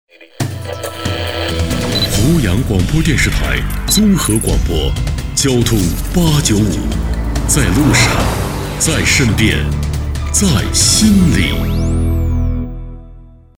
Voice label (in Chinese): 电台频宣 温暖沉稳; 濮阳广播电视台